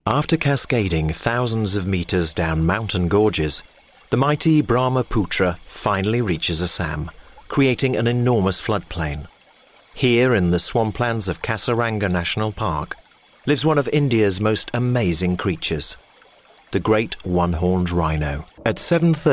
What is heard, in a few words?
Narration